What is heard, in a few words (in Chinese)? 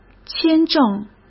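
"签证", Mandarin Chinese; chinese-flashcards; hsk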